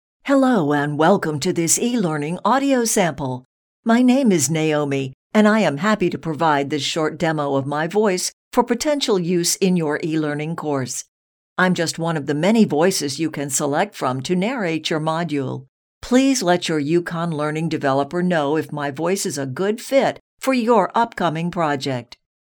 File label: Formal, voice talent; e-learn